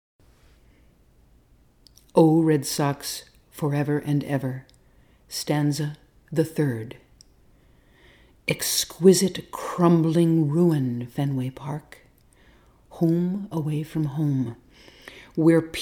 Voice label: Narration